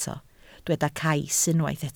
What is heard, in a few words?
"cais", Welsh